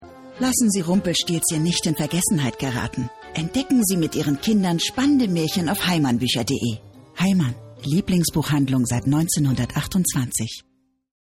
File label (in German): deutsch; LOFT VOICES